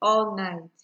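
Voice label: "allnight", Nigerian Pidgin, female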